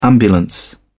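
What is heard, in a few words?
"ambulance", English, British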